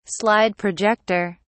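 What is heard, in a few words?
"slide projector", British English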